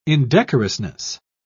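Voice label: "indecorousness", British English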